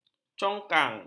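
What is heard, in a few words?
"congkang", Malay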